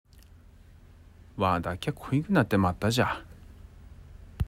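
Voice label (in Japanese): 津軽方言 例文, わだっきゃ こいぐ なってまった じゃ; （私は疲れてしまった）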